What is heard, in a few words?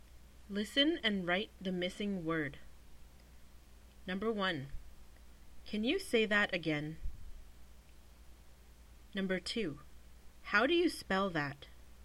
Classroom English